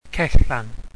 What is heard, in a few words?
"cellan", wales